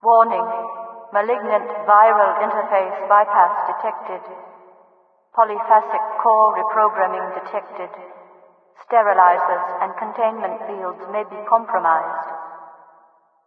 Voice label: prison interface bypass, overwatch city voice; Garry's Mod; hl2